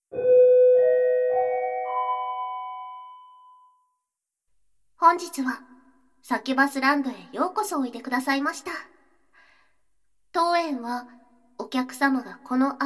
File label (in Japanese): バイノーラルマイク 効果音なし; サキュバスランド、園内説明